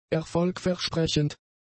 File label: "erfolgversprechend", German; adjectives